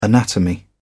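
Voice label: "anatomy", English, British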